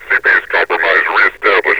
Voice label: cp is compromised, metropolice vo; Half Life Alyx

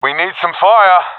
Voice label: request fire, professional epic; vo; Source Model Packs; Counter Strike Model Pack; CS2

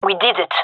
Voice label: cheer, fem; vo

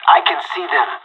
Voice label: radio enemy spotted, pirate; Counter Strike Model Pack; CS:GO

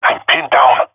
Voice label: pinned, jungle male epic; Source Model Packs; Counter Strike Model Pack; CS2

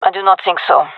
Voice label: disagree, gendarmerie fem; vo

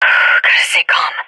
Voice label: scared; Counter Strike Model Pack; CS:GO

